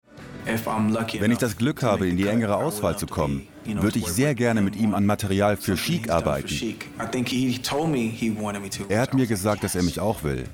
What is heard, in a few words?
voiceover; Muttersprache Deutsch